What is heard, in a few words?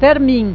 "fermin", Occitan